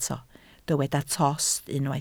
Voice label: "tost", welsh